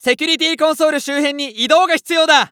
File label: Announce 2nd Safe Area Ready, JPN; Eternal Return